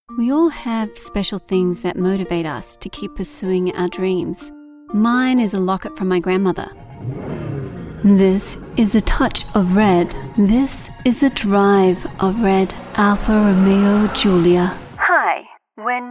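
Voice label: English Australian, female, commercial; accent of australia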